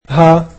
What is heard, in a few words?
"her", egyptian